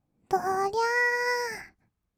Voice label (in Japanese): "どりゃー", kawaii voice; ASMR; 1200 kawaii voice!!; 音ゲー